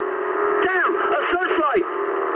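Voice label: English searchlite mood high; speech; War Thunder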